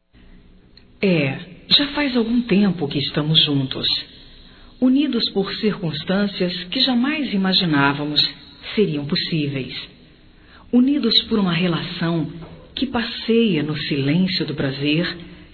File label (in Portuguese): Feminino; Amor Proibido Feminino